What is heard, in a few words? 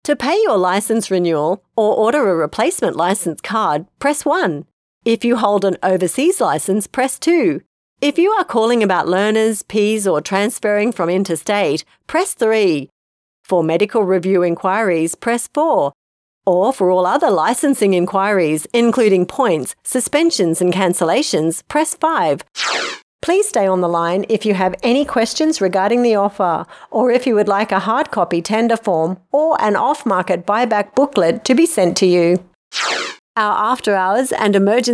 IVR